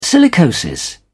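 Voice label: "silicosis", British English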